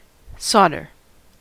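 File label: "solder", US English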